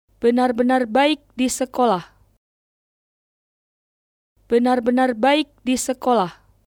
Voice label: (Name) is doing well, Indonesian